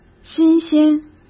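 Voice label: "新鲜", Mandarin Chinese; hsk; chinese flashcards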